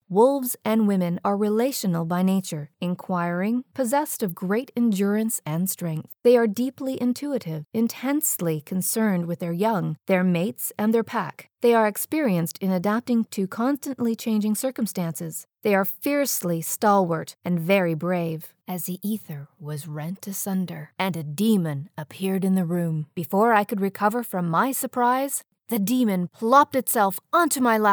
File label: Audiobook